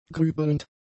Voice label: "grübelnd", German; adjectives